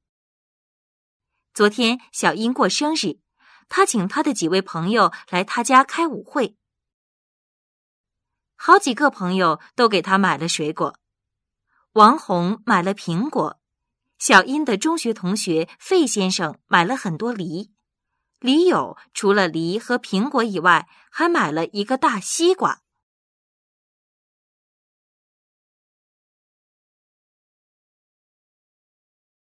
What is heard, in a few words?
narrative